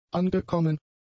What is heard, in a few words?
"angekommen", German; adjectives